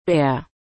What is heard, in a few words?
"Bär", German